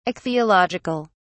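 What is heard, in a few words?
"ichthyological", British English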